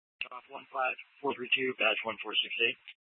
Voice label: PD Dispatch